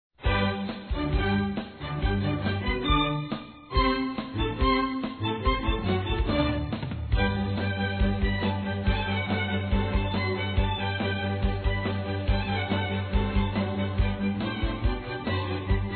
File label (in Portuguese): NEUTRO, masc